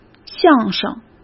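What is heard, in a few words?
"相声", Mandarin Chinese; chinese-flashcards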